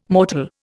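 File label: "mortal", Indian English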